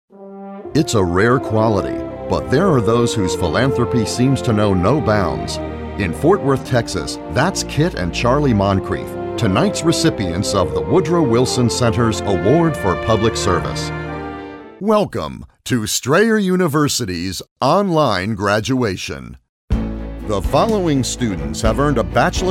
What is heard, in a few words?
Awards Male Voice Over; Male Voice Over Demo